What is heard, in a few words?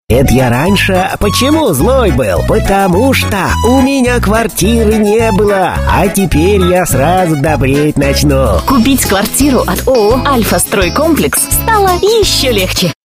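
Parod, parody; Pechkin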